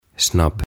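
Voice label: "Snabb" Swedish; Nopea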